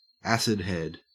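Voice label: "acidhead", Australian English; pronunciation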